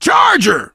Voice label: charger, survivor voice biker; l4d1; Left 4 Dead 2